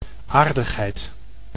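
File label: "aardigheid", Dutch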